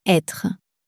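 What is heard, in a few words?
"etre", French, female; flashcards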